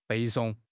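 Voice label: "背诵", Chinese; HSK6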